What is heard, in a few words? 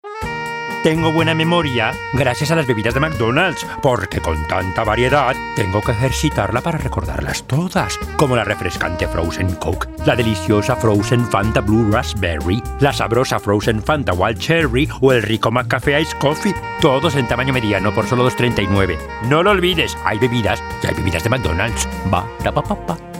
spanish latin american impersonations